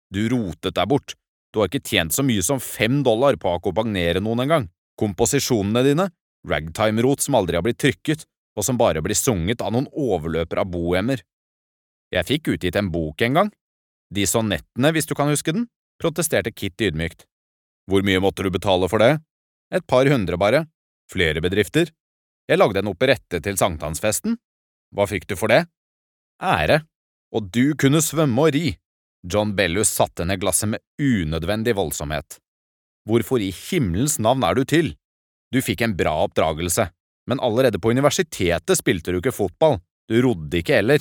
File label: Audiobook M